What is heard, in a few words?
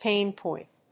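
"pain point", English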